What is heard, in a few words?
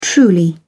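"truly", British English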